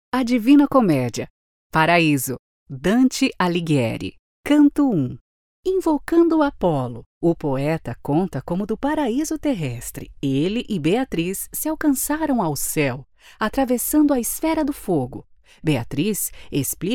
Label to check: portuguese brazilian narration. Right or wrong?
Right